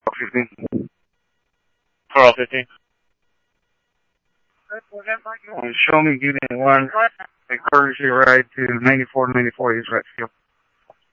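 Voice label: police department, scanner audio trunk; H-Scottsdale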